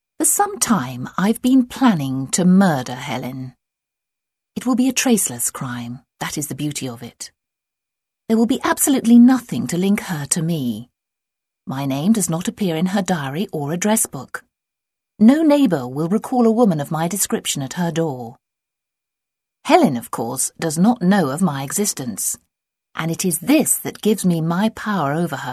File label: english british audiobooks